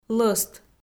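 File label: "лӧст", Komi, female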